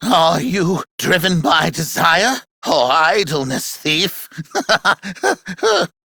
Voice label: Treasure Taunt, Corpus Aristocrat Manager, Battle, Barks, Dialog; Warframe; Treasurer